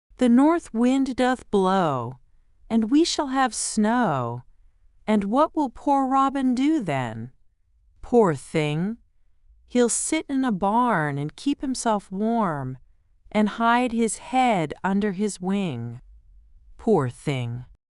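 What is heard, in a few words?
The North Wind Doth Blow female us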